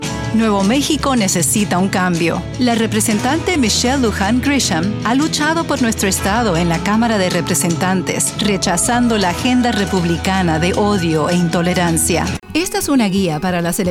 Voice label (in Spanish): Political Spanish